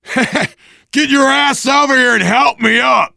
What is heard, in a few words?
ledge hang start, voice, biker; Left 4 Dead 2; left4dead2 dlc3; survivor